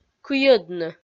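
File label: "кыйӧдны" Komi, female; kpv audio dict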